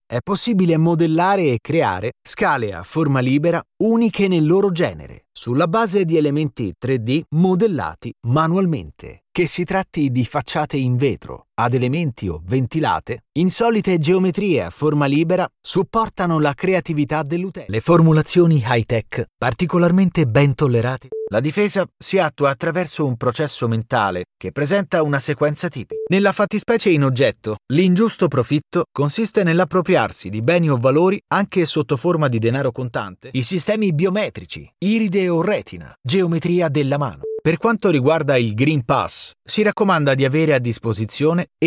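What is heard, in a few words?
Italian male elearning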